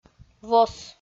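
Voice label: "вос", Komi, female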